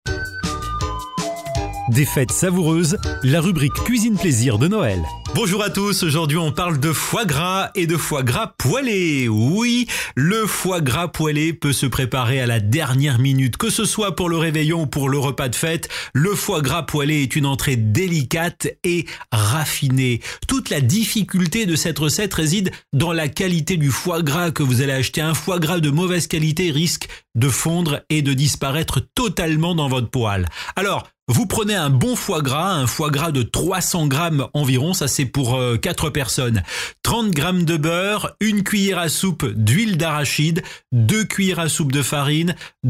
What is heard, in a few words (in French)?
voix off